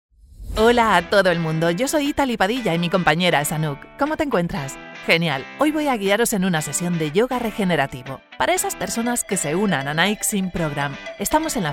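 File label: Castilian Spanish upbeat, commercial voiceover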